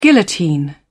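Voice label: "guillotine", British English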